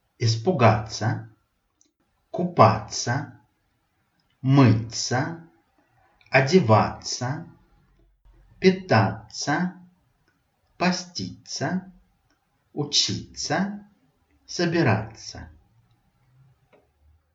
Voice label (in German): russisch